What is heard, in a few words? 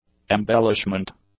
"embellishment", English; nouns